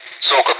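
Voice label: Speech, Russian, air traffic control; Callsign; DCS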